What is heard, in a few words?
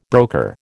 "broker", American